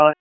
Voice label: Raleigh Police Northeast District Tac, p25; wake